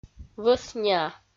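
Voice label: "вӧсниа", Komi, female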